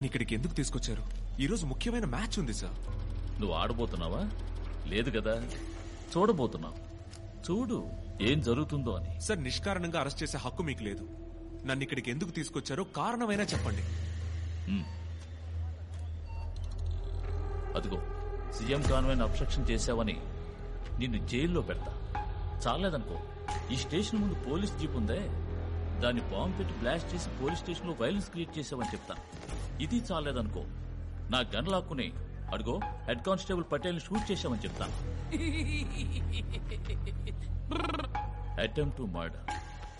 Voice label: dialogues, whistle telugu; vijay